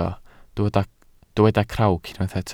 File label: "crawc", Welsh